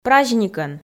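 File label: "праздникӧн" Komi, female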